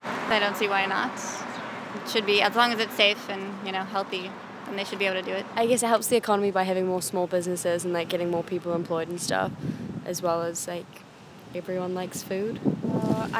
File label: voxpop; summer